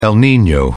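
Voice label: "el nino", British English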